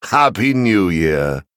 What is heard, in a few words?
Male Venthyr happy new year lunar